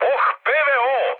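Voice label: Serbian damaged aa mood high, speech; War Thunder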